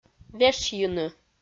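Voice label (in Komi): "вешйыны", Komi, female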